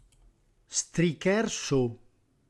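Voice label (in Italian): "strichêr só", Reggiano; reggiane; dialetto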